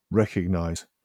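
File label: "recognize", British English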